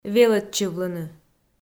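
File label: "велӧдчывлыны", Komi, female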